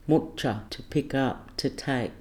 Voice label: "mutya" to pick up to take